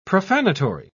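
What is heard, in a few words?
"profanatory", English, British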